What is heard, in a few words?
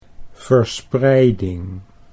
"verspreiding", Dutch